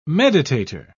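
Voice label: "meditator", English, British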